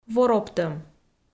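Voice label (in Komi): "вороптӧм", Komi, female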